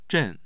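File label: "zhen4", Mandarin Chinese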